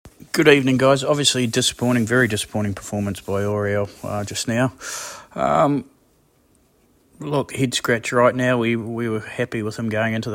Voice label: voice note